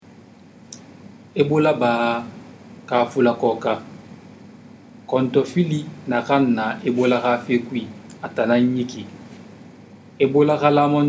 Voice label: sousou; vhf; ebola

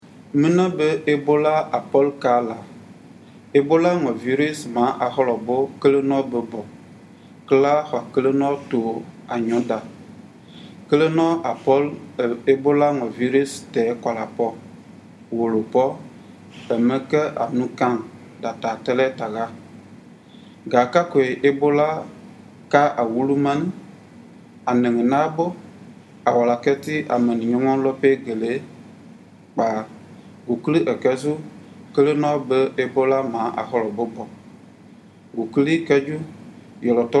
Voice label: guerze; ebola; radio-spots; vhf

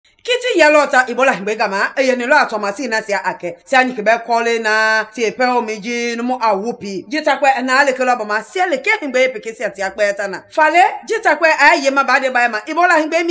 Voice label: Mende; ebola; radio-spots

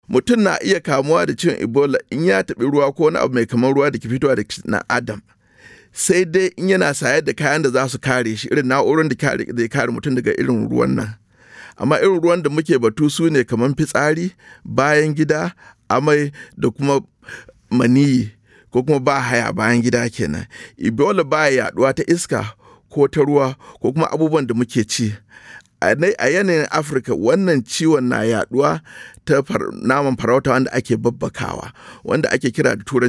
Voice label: Hausa Message, radio spots; PSA; ebola; vhf

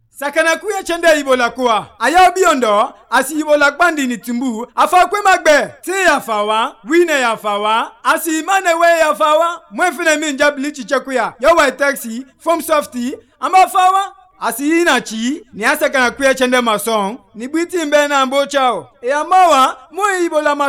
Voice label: Kono, radio spots; ebola; vhf

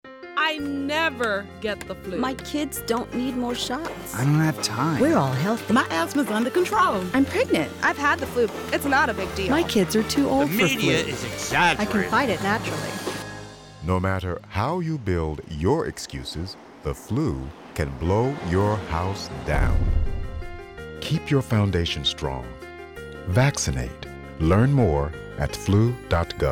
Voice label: radio; House Flu 2010

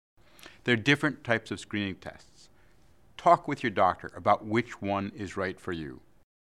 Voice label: English; Colorectal Cancer

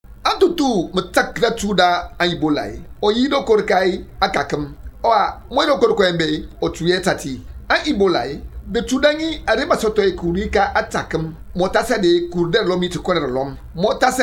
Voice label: Themne; ebola; radio-spots

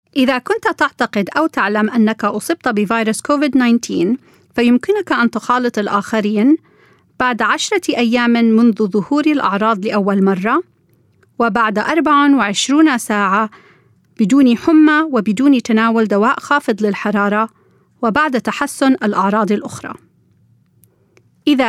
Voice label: PSA Arabic I Think Know Had COVID 19; coronavirus

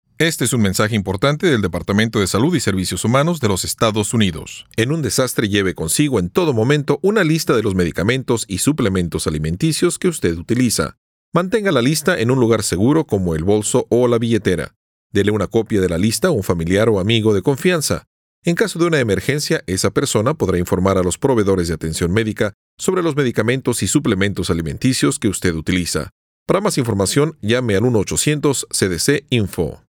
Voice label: Drugs And Supplements SPAN PSA; CDC; disasters

